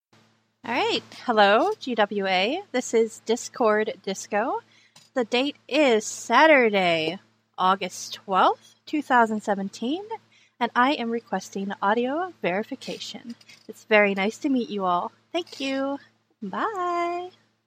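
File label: Female Verification Request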